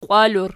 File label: "qiwal", Archi